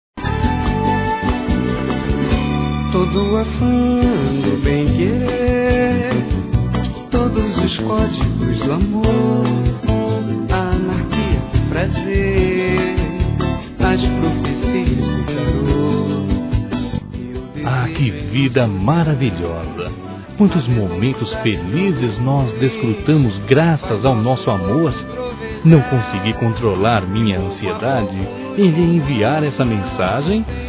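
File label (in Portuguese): AGRADECIMENTO ROMANTICO, masculino; Telemensagens; Convites e Agradecimentos; Telemensagens 2017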